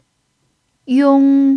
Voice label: "yong1", pinyin